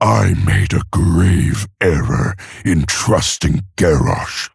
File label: Male Orc Idle; Thrall; Jaina